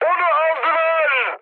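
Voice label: Turkish aircraft destroyed, mood high, speech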